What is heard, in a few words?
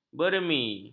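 "beremi", Malay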